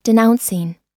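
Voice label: "denouncing", US English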